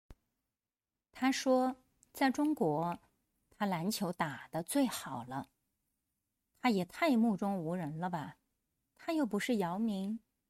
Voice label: denoised